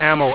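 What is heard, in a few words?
"ammo", english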